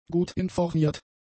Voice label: "gutinformiert", German; adjectives